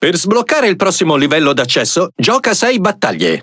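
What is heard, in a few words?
Speech Access Level Unlock, Italian; World Of Warships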